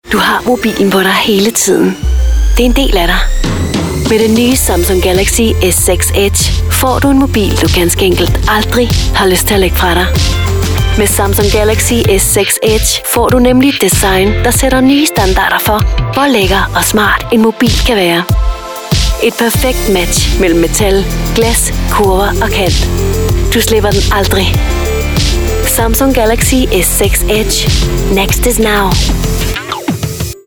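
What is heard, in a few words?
Danish, female, commercial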